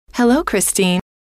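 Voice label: hello, female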